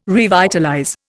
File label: "revitalize", Indian